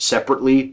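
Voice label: "separately", American English; pronunciations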